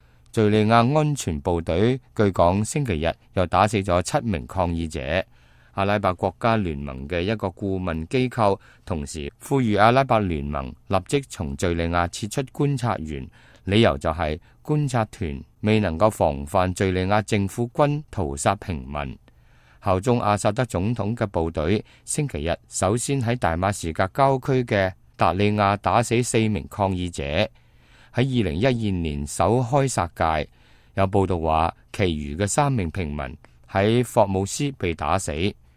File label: cantonese, news